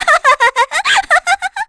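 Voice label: Vox Casting, English; FreyB; kings raid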